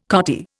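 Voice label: "cottier", Indian English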